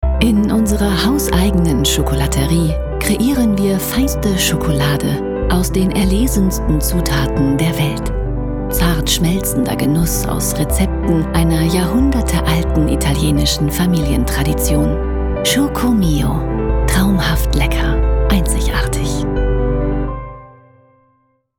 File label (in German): Werbung Schokolade